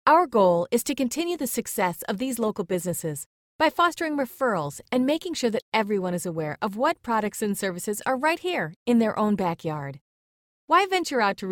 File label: Voice Over